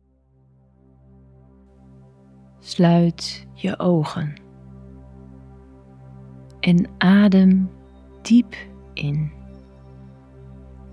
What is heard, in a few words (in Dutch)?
Ontspanning, voice over; warmte, zachtheid en vriendelijkheid